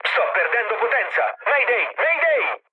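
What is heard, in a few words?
Italian damaged engine mood low, speech; War Thunder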